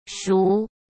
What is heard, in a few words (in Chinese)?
"赎", Chinese; hsk